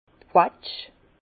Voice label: "quetsch", Bas Rhin; vin; prune

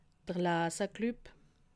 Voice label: "laserclub", alsace

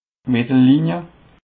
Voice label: "mettellinie", alsace

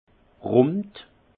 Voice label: "rond", alsace; vin

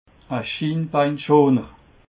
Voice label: "schinbaan schoner", alsace

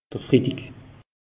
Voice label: "fridig", alsace; meteo

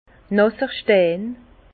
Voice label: "nasser stein", Bas Rhin; vin; pierre mouillée

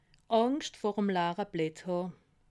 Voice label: "angscht", alsace

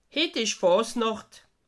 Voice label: "fasnacht", alsace

